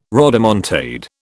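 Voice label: "rodomontade", British English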